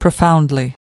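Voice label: "profoundly", US English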